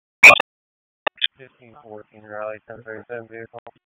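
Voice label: Police Northeast District Disp, radio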